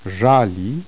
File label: voiced retroflex initial, polish